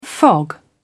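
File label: "fog", British English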